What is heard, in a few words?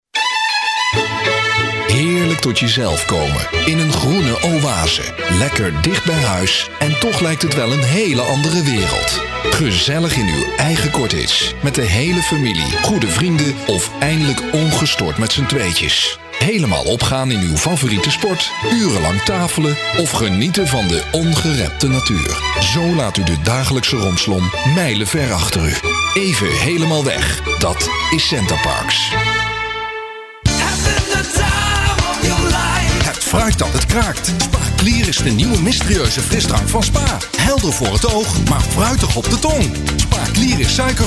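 dutch male voice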